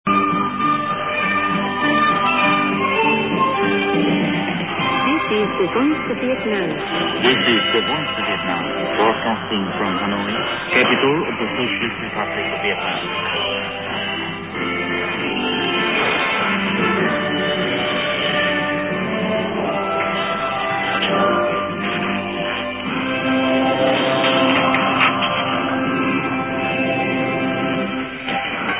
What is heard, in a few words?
voice of vietnam 9730 kHz